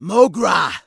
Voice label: Orc Female Standard NPC Greeting